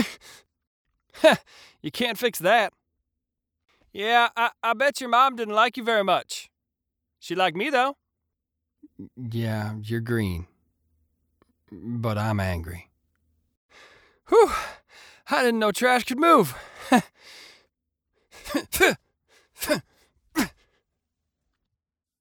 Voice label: Recruit Male